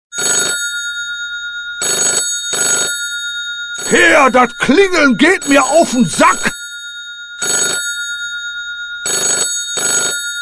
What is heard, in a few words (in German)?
dat klingeln sack, ringtones